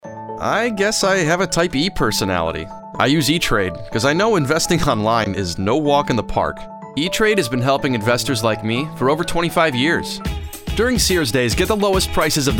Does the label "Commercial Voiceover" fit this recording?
Yes